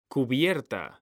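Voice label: "CUBIERTA", Spanish; mekanta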